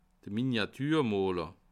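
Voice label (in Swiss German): "miniaturmaler", Bas Rhin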